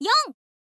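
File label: "four", info girl; voice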